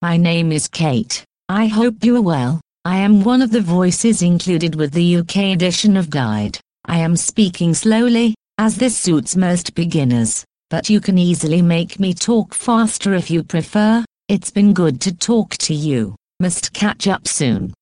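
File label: Speed UK, British English, female; Kate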